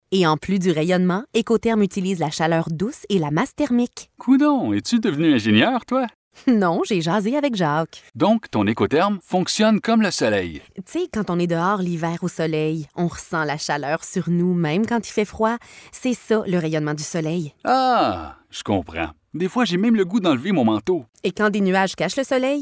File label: francais conversationnel